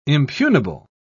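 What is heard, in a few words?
"impugnable", British English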